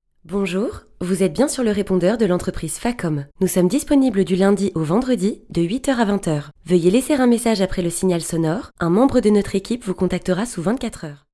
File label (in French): repondeur